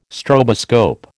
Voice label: "stroboscope", American